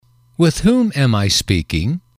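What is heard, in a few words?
"with whom am I speaking", English, male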